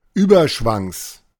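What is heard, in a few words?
"Überschwangs", German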